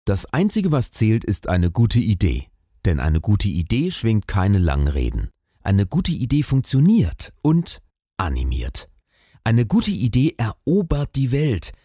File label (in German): stimmprobe imagefilm